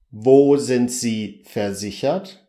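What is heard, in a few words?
wo versichert, German; beim arzt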